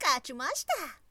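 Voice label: "kachimasita", woman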